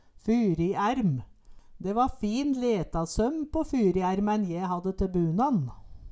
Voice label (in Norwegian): "fyriærm", Numedalsmål